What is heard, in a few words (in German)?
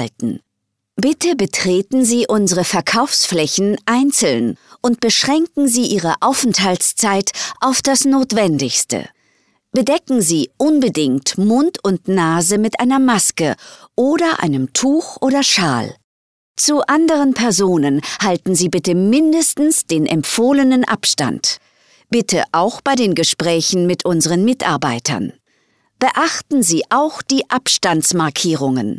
DE Corona Sicherheitshinweis; Sprech-Fabrik